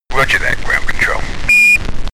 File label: astronaut chatter preparing